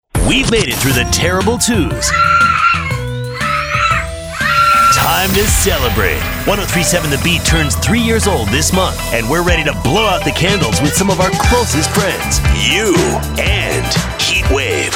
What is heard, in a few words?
Birthday Bash Promo; KFBT 3rd